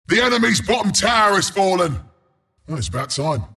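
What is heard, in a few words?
announcer tower fall enemy bottom; Dota 2; bristleback announcer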